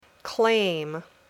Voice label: "claim", English